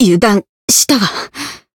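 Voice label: Voice win barely, Japanese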